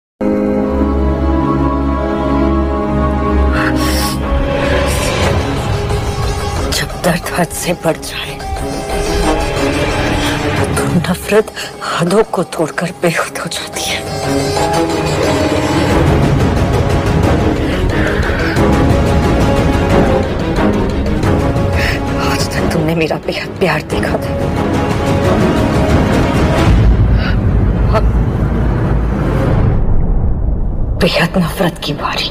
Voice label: Dialogue Ringtone; Jab Dard Had Say Barh Jay